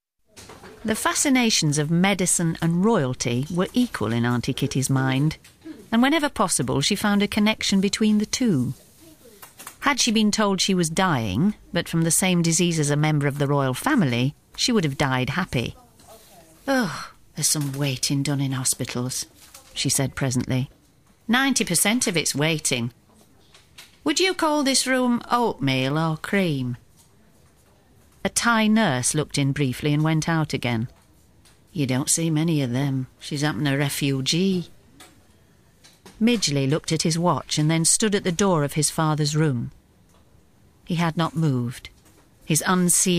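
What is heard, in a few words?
narration Book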